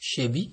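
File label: "shebi", Nigerian Pidgin, male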